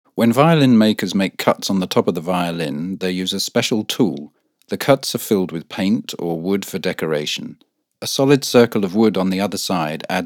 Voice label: Lektor ENG UK; Studio Nonagram